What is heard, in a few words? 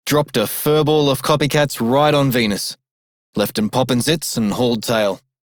Male Radio, en; Dialog; Warframe; Solaris Venus